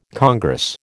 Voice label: "congress", American